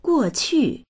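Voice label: "guo4qu4", Mandarin Chinese; guòqù; 过去; 過去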